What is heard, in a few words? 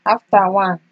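"after one", Nigerian Pidgin, female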